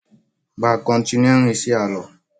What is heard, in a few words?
voice message